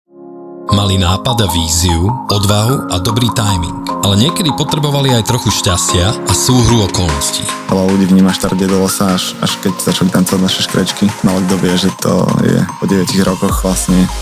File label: Trailer